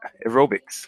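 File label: "aerobics", USA, male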